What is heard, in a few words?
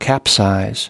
"capsize", us